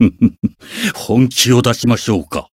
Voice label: encount fear, jp